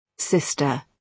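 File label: "sister", British English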